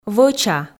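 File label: "вӧча", Komi, female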